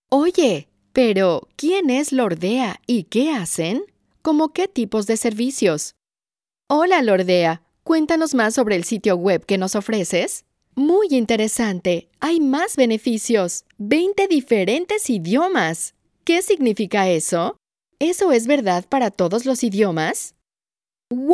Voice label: SPANISH